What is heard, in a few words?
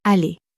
"aller", french; flashcards